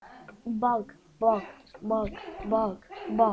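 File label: "bog", spoken word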